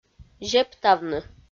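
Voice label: "зептавны", Komi-Zyrian, female; audio dict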